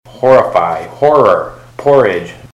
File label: horrify horror